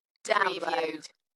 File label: UK female, Dalekness; beanstalk audio